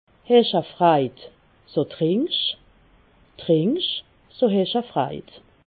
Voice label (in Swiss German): "freid", alsace